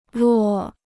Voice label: "落", Chinese

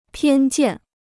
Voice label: "偏见", Chinese

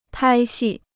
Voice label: "拍戏", Chinese

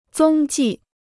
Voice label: "踪迹", Chinese

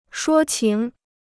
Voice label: "说情", Chinese

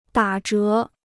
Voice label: "打折", Chinese

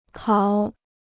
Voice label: "考", Chinese

